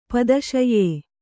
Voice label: "ಪಿತ್ತಾಶಯ", Kannada